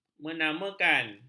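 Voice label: "menamakan", Malay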